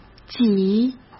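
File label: "几", Mandarin Chinese; hsk; chinese-flashcards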